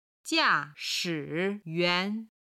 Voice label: "jia4 shi3 yuan2", chinese